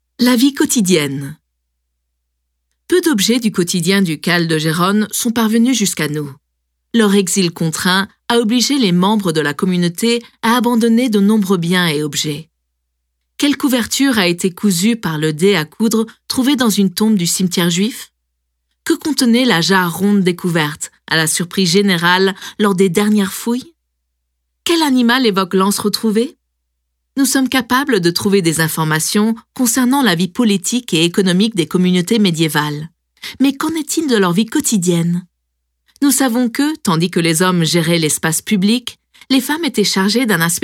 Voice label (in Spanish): audioguia; museujueus french